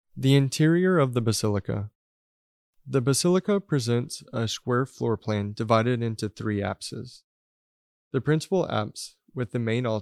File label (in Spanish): Audio Ingles; TIBIDABO; english